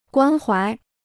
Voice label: "关怀", Chinese

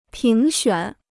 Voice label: "评选", Chinese

